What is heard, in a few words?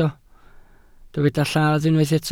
"lladd", welsh